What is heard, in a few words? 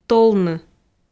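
"толны", Komi, female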